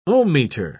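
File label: "ohmmeter", British English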